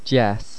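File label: "deas", Irish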